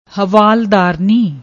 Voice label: "hwaldarni", Punjabi; ਹਵਾਲਦਾਰਨੀ

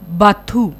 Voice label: "baathoo", Punjabi

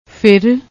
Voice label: "fir", Punjabi; ਫਿਰ; پھِر; verb; ਫਿਰਨਾ; turn, stroll, wander

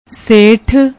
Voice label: "seth", Punjabi; ਸੇਠ

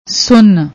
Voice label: "sunn", Punjabi

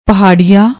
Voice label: "paharea", Punjabi; noun, masculine; hillman, highlander

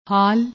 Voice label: "haal", Punjabi; ਹਾਲ

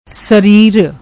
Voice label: "sreer", Punjabi; ਸਰੀਰ

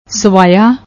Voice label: "swaia", Punjabi; ਸਵਾਇਆ

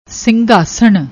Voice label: "singhasan", Punjabi; ਸਿੰਘਾਸਣ

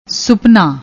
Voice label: "supna", Punjabi

